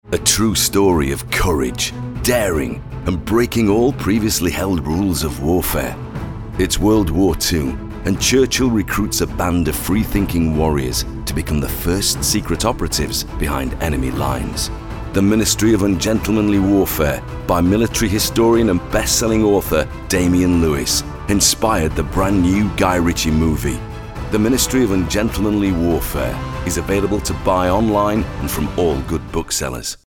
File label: male northern accent commercial; english northern accents; quercus books